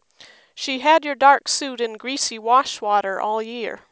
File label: anechoic voices